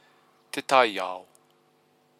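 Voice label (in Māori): "te taiao", Māori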